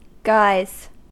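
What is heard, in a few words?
"guise" US English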